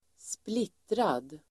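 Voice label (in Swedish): "splittrad", Swedish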